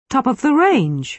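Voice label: "top of the range", British English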